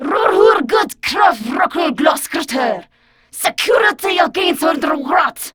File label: Patrol, Grineer Female; Warframe; Battle Barks